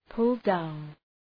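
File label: "pull down", English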